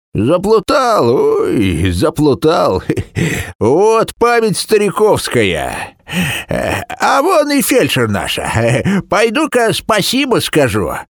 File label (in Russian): ded starik starichok